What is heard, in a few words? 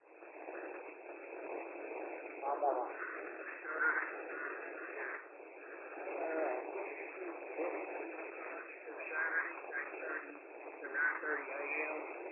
phone with noise denoised